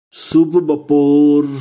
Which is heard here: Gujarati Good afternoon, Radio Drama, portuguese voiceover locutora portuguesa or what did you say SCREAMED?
Gujarati Good afternoon